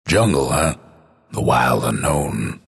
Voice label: announcer text jungle; dlc bastion

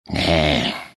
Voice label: anger; slardar

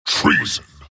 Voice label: wraith death; dota; skeleton king

